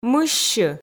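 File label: "мыссьы", Komi, female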